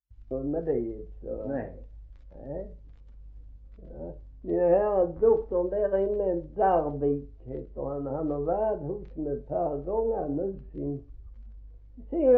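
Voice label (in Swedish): Gråmanstorp Skåne, Svenska sagor dialekter; Folkminnen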